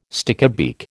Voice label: "stickybeak", American

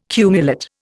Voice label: "cumulate", Indian

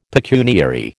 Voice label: "pecuniary", American

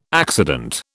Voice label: "accident", British

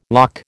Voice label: "loch", American

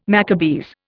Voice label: "Maccabees", Indian

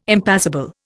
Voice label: "impassible", Indian

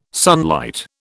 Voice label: "sunlight", British

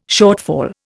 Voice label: "shortfall", Indian

